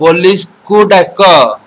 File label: police odia